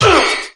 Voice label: "uh" KICK; ooh ahh

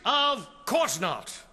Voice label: "of course not" male; Voice; expletives

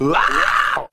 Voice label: "woahow", voice; ooh ahh

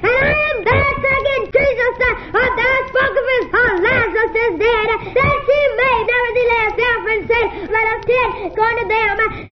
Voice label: ah dee mah dah da, Voice gibbrish

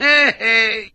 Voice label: "hey hey", voice; ooh ahh

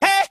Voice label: "hey" male; ooh ahh

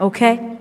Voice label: "okay", expletives

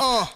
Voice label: "uh", male

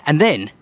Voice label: "and then", british bloke

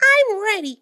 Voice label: cartoon; i'm ready

